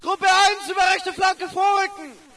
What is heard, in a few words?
ger flank right; player; Source Engine; dod